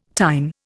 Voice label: "tine", Indian